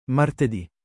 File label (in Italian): "martedi", italian